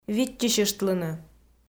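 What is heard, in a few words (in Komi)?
"виччысьыштлыны", Komi, female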